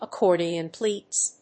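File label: "accordion pleats", English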